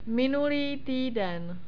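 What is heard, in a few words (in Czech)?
"minulý týden", czech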